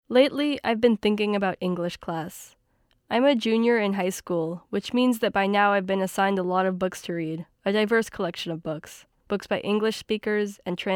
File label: commentary